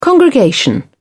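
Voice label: "congregation", British English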